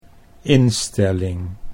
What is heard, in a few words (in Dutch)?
"instelling", Dutch